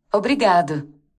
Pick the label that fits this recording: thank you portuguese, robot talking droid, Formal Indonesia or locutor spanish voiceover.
thank you portuguese